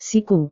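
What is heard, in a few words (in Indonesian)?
"siku", Indonesian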